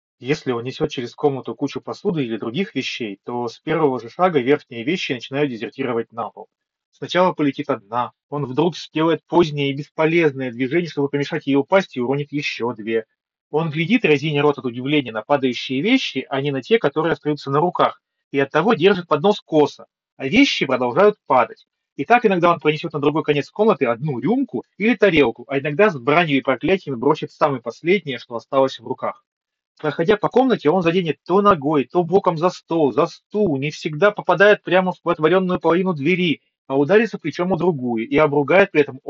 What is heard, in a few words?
Mic; Запись с микрофона гарнитуры; Tecno FreeHear 1